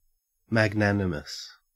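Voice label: "magnanimous", Australian English; pronunciations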